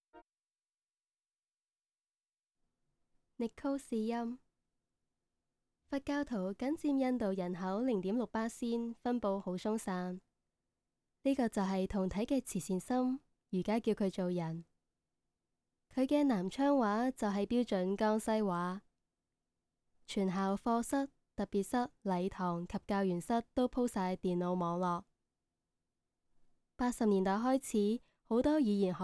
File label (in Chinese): 粤语试音